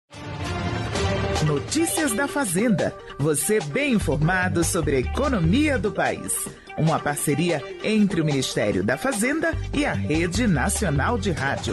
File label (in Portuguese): podcast; alerta contra golpes